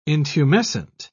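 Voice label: "intumescent", English, uk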